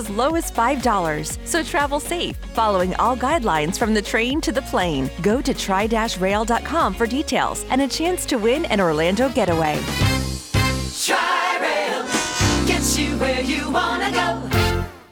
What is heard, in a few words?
Airport English; TriRail